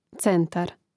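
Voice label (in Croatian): "centar", Hrvatski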